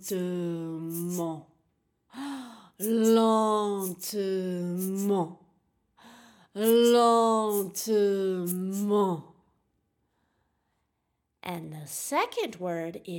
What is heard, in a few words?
Magic Words Slowly And Fast French; Fruits